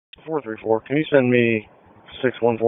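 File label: Police District Dispatch, p25; wake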